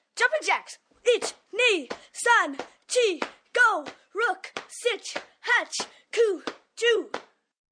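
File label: Numbers Japanese 1 to 10, 1 boy 11 yrs, counting, Japanese - 1-10, human voice, kid; Numbers, Foreign; child, speak, talk; Talking Sound Effects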